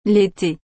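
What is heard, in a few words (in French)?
"l été", French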